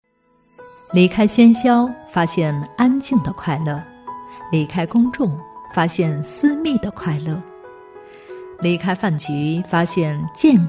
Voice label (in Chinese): 女 亲和; 成熟; 庄园